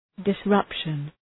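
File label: "disruption", English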